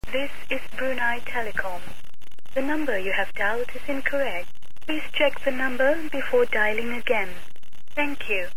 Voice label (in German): Kein Anschluss, brunei